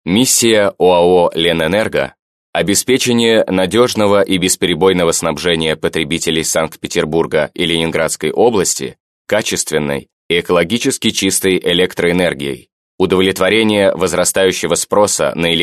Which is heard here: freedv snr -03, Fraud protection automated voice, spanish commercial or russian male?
russian male